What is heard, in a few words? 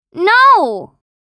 Teenage girl saying no; misc human